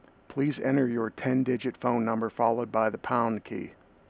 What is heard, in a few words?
Voice Manual Phone Entry Message